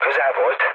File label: bomb failure, speech hun; War Thunder